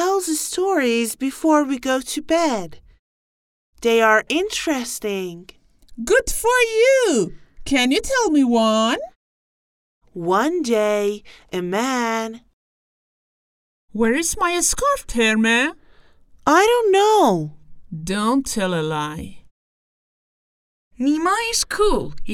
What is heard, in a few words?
conversation